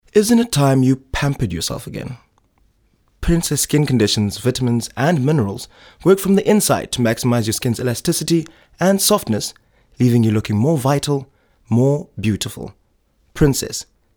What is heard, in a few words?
soft sell